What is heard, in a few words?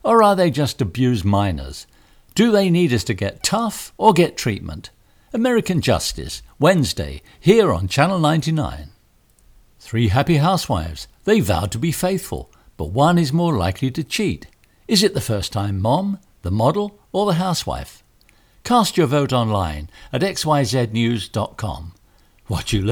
TV Continuity Announcements